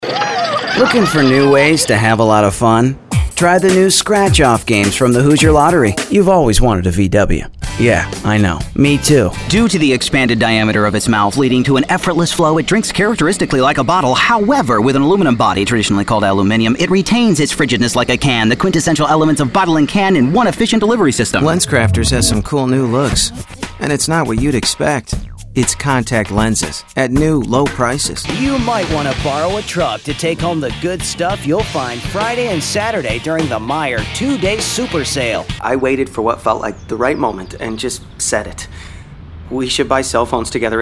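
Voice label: commercial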